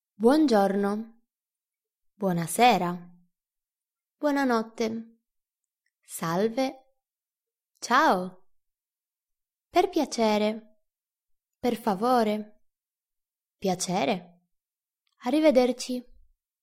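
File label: buongiorno, Italian; Level01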